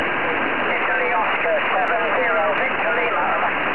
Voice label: qso

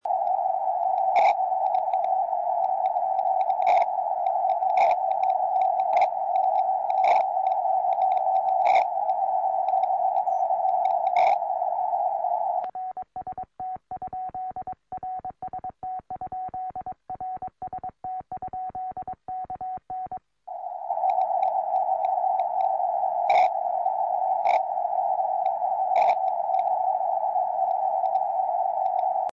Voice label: 70cm, tropo

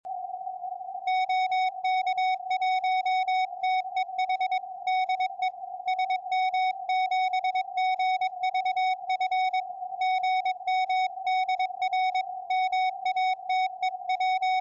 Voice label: 70cm, tropo

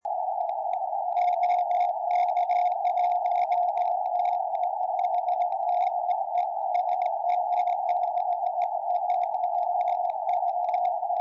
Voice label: 23cm, tropo

